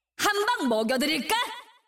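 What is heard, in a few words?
Korean; 探奇（守望先锋2）/终极技能; 한 방 먹여 "드릴"까!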